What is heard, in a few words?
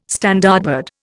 "Standardbred", Indian